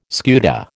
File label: "scuta", American